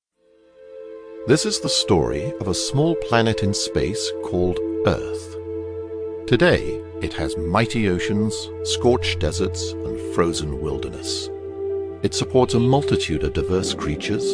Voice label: Male British Accent